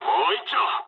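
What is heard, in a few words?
Japanese player killed enemy mood low; War Thunder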